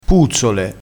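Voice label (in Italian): "puzzole", vocabolario dialettale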